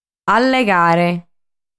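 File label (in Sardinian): "Allegare", Sardinian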